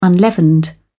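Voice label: "unleavened", English, British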